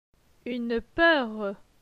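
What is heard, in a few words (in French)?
"Une peur", French; phonetique; les voyelles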